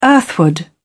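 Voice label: "earthward", British English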